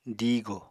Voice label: "diigò", Apatani